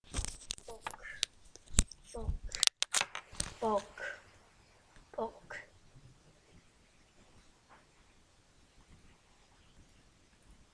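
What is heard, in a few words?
"book", spoken word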